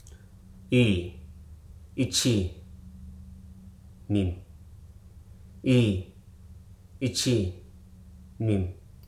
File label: "her", Hruso